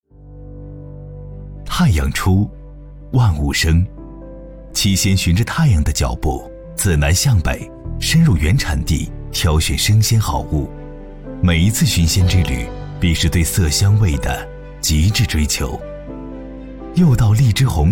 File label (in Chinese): 男 宣传片; 京东七鲜